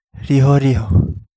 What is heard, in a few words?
Kapingamarangi; wideband fusilier